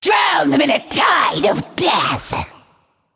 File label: Female Naga Play